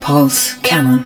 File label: hud pulse cannon, english; Battle Engine Aquila; XBOX